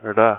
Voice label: ra letter, hindi devanagari